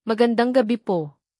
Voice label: "magandang gabi", Tagalog

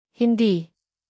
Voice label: "hindi", Tagalog; tagalog phrases

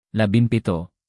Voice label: native, tagalog numbers

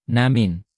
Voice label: "namin", tagalog pronouns

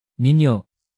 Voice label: "ninyo", Tagalog; tagalog pronouns

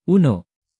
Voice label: spanish, tagalog numbers

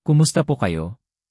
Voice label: "kumusta po kayo", Tagalog

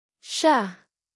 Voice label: "ang", tagalog pronouns

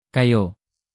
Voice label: "ang", tagalog pronouns

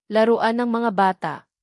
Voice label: "ng", tagalog markers

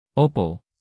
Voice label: "opo", tagalog

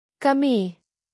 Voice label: "ang", tagalog pronouns

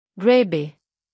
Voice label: "grabe", Tagalog; tagalog phrases

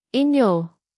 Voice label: "inyo", Tagalog; tagalog pronouns

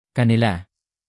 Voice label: "kanila", tagalog pronouns

